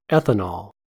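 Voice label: "ethanol", US English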